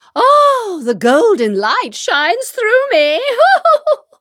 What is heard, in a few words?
levelup, en; cookie run